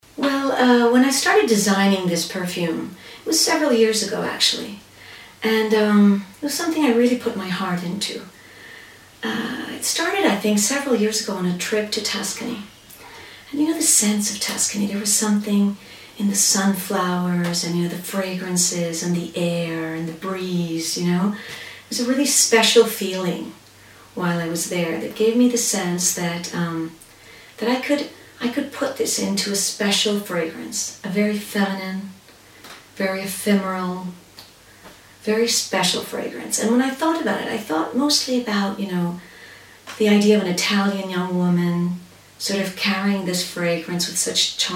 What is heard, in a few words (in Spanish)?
Mujeres Ingles; Casting Impulse